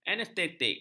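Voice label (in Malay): "anestetik", Malay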